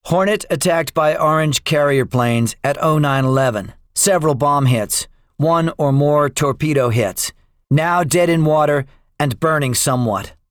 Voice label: debriefing, english; guadalcanal; War Thunder

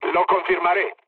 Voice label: player killed enemy, mood low, speech

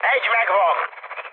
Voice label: player killed enemy, mood high, speech hun; War Thunder

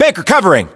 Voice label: US response covering, battlechatter, english; localized english

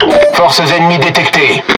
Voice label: Speech Detection Enemy Any Ship, FR; World Of Warships

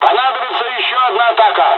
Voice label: dialog, dialogs russian; midway; War Thunder

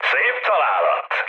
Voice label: bomb success, mood med, speech hun; War Thunder

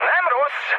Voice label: trick, speech hun; War Thunder

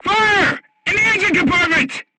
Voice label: voice message fire in tank, crew tanks portuguese

